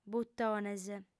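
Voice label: "butones", female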